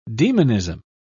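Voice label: "demonism", British English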